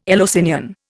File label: "Eleusinian", Indian